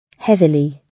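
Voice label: "heavily", British English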